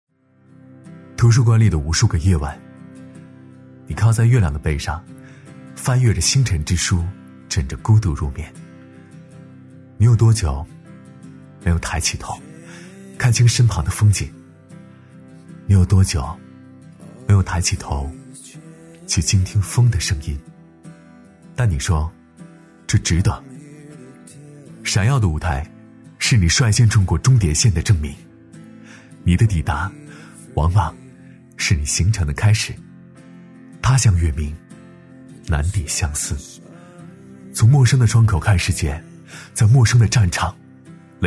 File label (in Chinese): 男 讲述; 男B3-磁性稳重; 质感磁性; 中国铁路